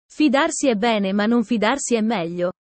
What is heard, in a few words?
italian sayings about life